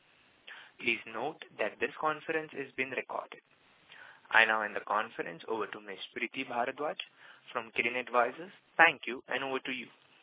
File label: earnings call audio recording